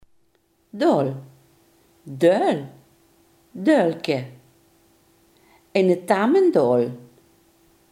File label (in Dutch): "kauw", Limburgs